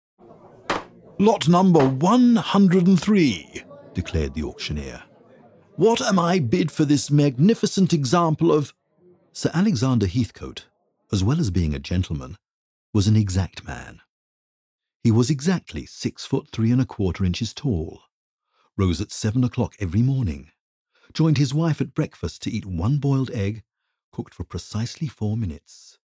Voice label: english british narration

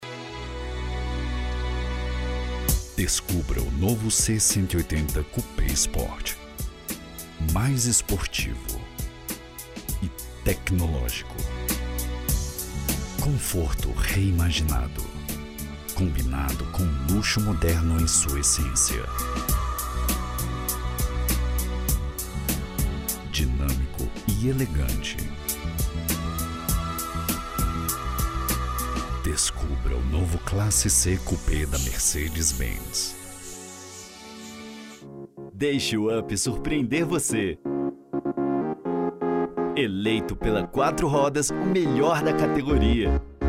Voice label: Portuguese Brazilian automotive

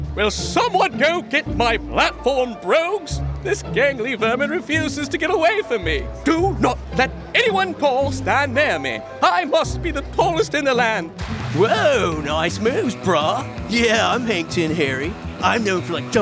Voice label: english american animation

